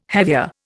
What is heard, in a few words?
"hevea", Indian